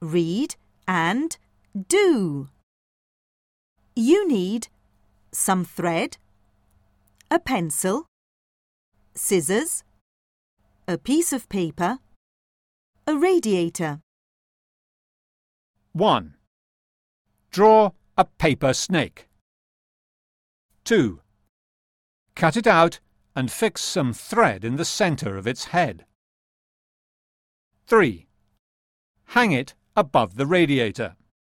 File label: Inglese Warm Air goes Up